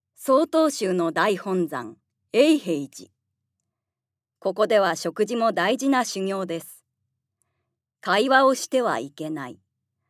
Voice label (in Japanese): ナレーション